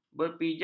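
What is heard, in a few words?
"berpijak", Malay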